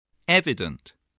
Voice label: "evident", voice, english